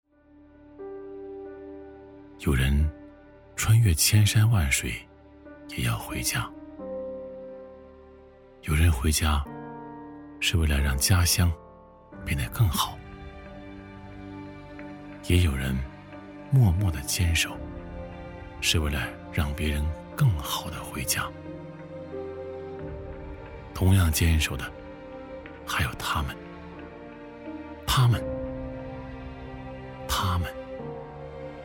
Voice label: 男 独白; 奔腾

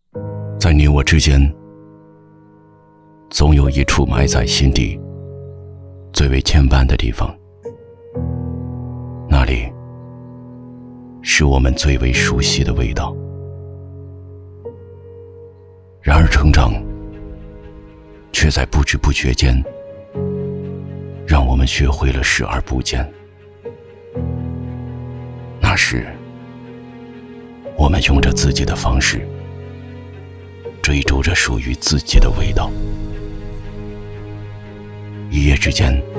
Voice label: 男 宣传片; 帝豪

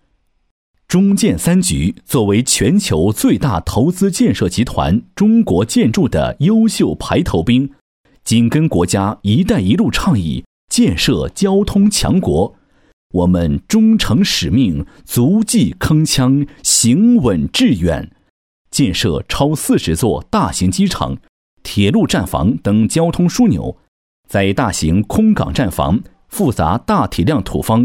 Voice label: 男 工程汇报; 黄岩区西城街道新建商业

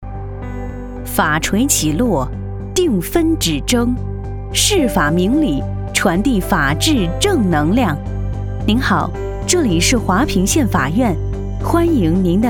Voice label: 女 专题宣传; 华坪法院

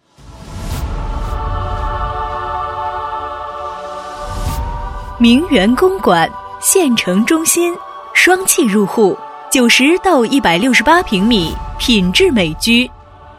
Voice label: 女 地产广告; 铭源公馆

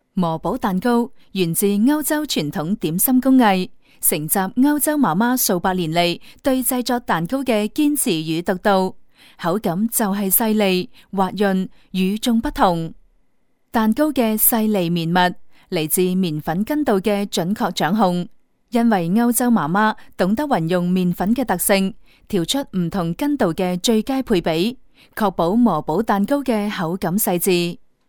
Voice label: 粤女 专题; 磨堡蛋糕

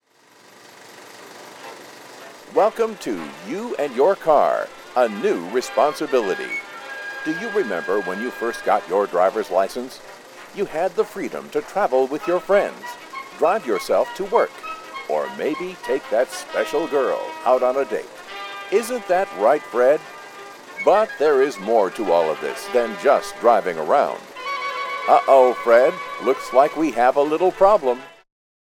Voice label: 外籍英男, 黑白电影风格; 火车站

